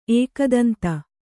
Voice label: "ಏಕದಂತ", Kannada

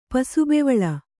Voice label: "ಪಸುಬೆವಳ", Kannada

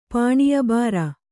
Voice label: "ಪಾಣೀಯಬಾರ", Kannada

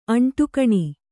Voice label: "ಅಂಟುಕಣಿ", Kannada

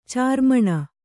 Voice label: "ಚಾರ್ಮಣ", Kannada

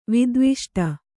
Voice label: "ವಿದ್ವಿಷ್ಟ", Kannada

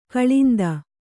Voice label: "ಕಾಲಿಂದಿ", kannada

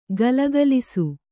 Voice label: "ಘಲಘಲಿಸು", kannada

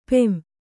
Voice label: "ಪುಂ", Kannada

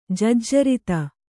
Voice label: "ಜಜ್ಜರಿತ", Kannada

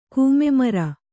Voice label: "ಕೂಮೆಮರ", Kannada

